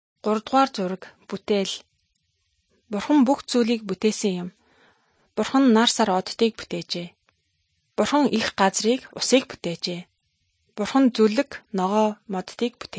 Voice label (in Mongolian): good news mongolian